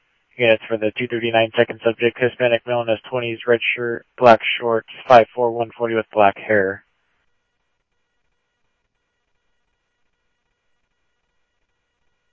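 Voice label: Mesa Simulcast Police Department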